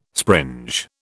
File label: "springe", British